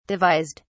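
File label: "devised", British English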